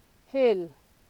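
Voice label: "hail", Nigerian Pidgin, female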